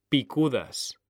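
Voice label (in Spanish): "PICUDAS", Spanish; mekanta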